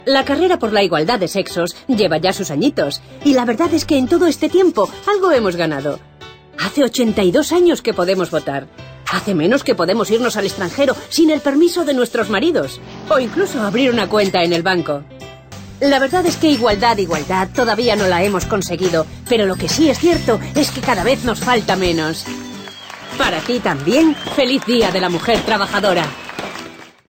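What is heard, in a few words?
Spanish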